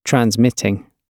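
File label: "transmitting", British English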